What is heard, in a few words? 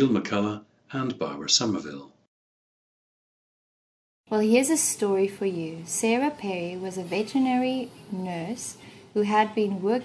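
south africa, dialects of English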